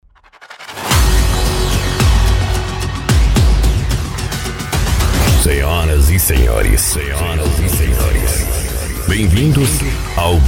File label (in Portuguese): ABERTURA DJ VOZ